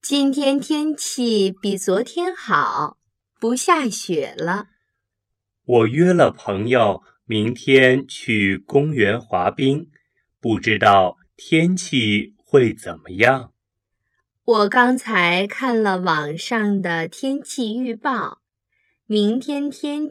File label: lesson dialogue slow